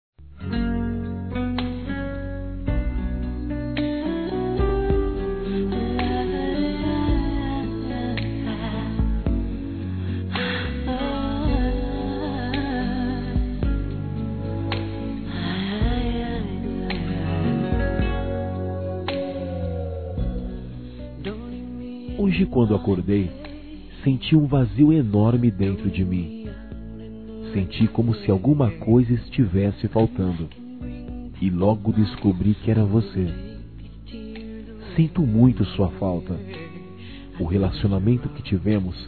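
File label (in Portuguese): reconciliação masculino; Telemensagens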